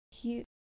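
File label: "hyu", Japanese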